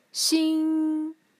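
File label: "xing", Mandarin Chinese, tone 1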